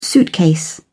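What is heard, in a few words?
"suitcase", British English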